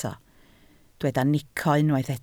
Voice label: "nico", Welsh

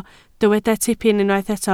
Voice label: "tipyn", Welsh

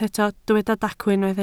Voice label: "dacw", Welsh

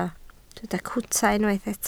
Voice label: "cwta", Welsh

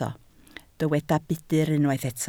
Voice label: "budr", Welsh

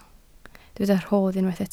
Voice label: "rhodd", Welsh

